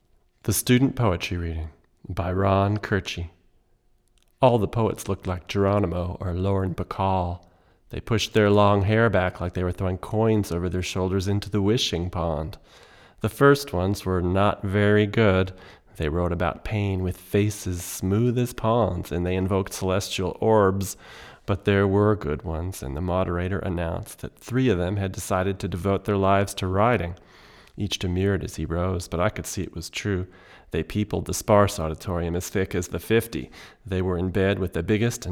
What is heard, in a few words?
English, Neumann M269